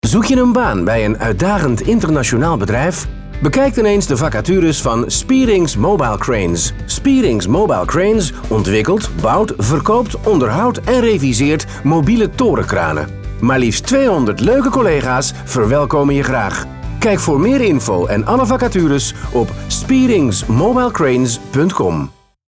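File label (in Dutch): Nederlandse voice-over stemacteur man, Dutch male voice actor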